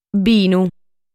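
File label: "Binu", Sardinian word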